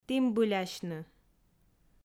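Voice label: "тімбылясьны", Komi, female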